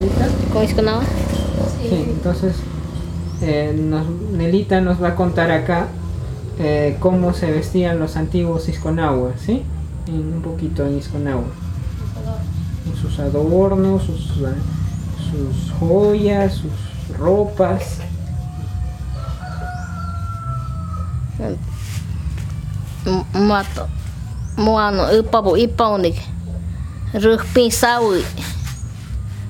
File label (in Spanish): Narración; Vestimenta